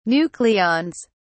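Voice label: "nucleons", British English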